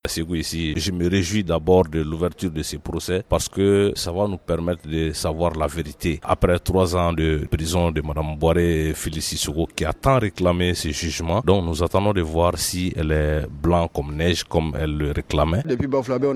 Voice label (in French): REACTION VOX POP PROCES EQUIPEMENTS MILITAIRES